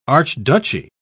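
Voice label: "archduchy", English, British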